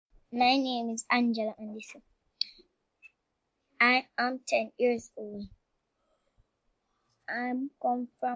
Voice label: Jamaica Girl 10 Monologue